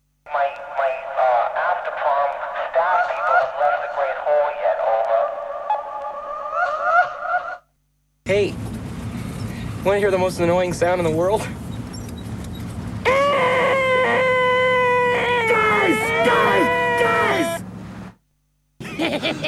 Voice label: Vocal Drops; Kniteforce; 1000 Samples Volume 1

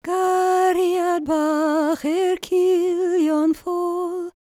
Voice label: WELSH F, World Voices

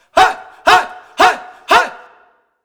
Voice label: "Hoy", Vocals Male; World Pop

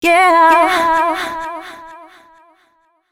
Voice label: female c#133, adlips

